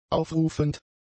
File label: "aufrufend", German; adjectives